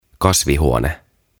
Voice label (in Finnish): "Kasvihuone", "Greenhouse"